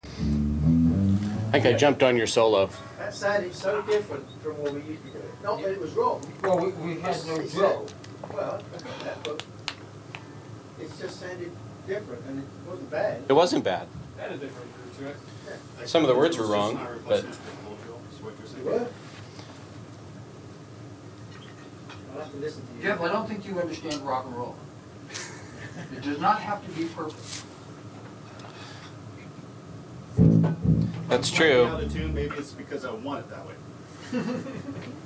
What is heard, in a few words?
Background Talking Mix